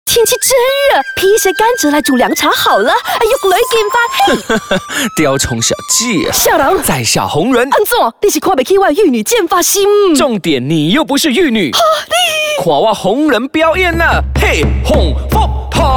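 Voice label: Mandarin Character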